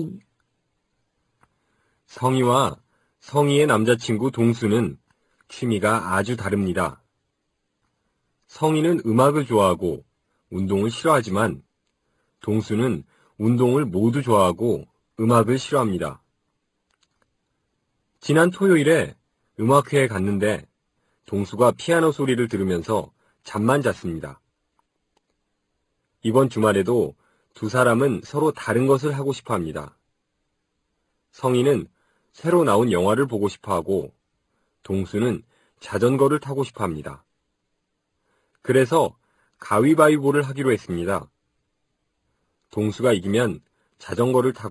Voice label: Korean Narration; DLI; Lesson 15 - Narration